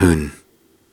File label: Soft Syllables and Imaginary Words, Viking Vocal